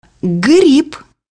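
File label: "mushroom", Russian; bukvar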